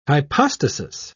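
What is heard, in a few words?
"hypostasis", British English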